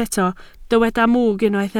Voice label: "mwg", Welsh